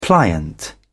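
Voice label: "pliant", British English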